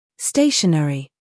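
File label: "scandal", American; stationery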